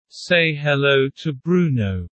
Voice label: low; Animal friends